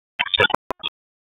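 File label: Police Northeast District Dispatch; Raleigh; p25; wake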